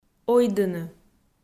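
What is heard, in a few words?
"ойдыны", Komi, female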